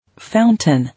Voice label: "Fountain", English

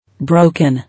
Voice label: "Broken", English

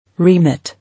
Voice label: "Remit", English

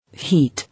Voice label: "Heat", English

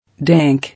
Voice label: "Dank", English

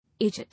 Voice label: "Aged", English

